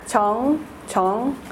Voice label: "jeong", female